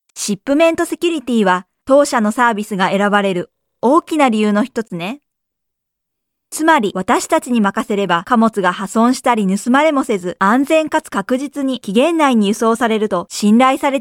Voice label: Japanese Tokyo Accent